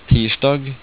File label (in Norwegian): "tirsdag" Norwegian